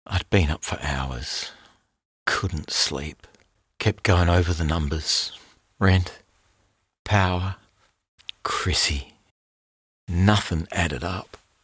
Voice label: Rural Australian Male, Natural Speak, Earthy, Natural Believable